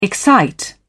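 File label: "excite", British English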